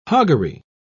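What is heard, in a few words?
"hoggery", British English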